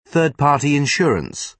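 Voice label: "third party", British English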